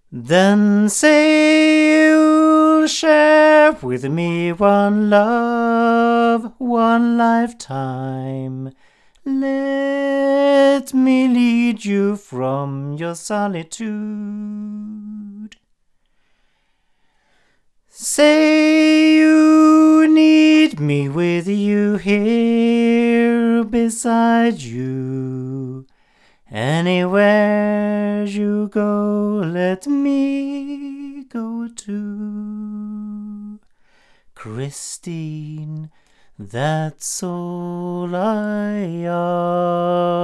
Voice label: Earthworks M30 male, microphones